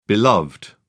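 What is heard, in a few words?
"beloved", British English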